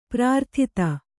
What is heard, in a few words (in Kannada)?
"ಪ್ರಾರ್ಥಿತ", Kannada